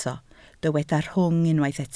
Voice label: "rhwng", Welsh